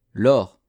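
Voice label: "lor", French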